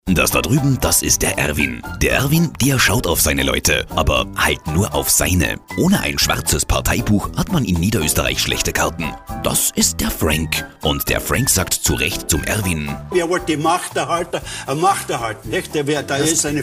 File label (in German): Radiospot; Niederoesterreich